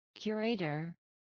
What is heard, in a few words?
"curator", English